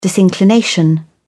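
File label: "disinclination", British English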